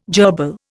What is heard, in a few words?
"jerbil", Indian